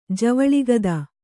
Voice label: "ಜವಳಿಗದ", kannada